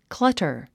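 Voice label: "clutter", English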